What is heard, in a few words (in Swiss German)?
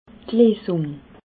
"leesung", alsace